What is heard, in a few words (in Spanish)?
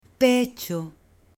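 "pecho", Spanish, male; CUERPO; AppLenguaje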